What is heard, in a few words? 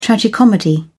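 "tragicomedy", British English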